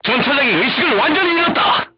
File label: voice message commander contused, korean, crew tanks